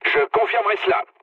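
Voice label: player killed enemy, mood low, French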